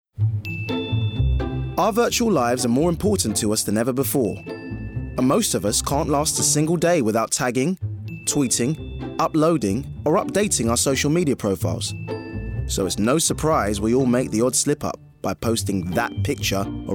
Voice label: Documentary